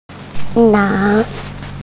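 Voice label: "na2", Mandarin Chinese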